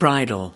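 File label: "bridle", US English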